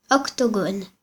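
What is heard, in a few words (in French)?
"octogone", French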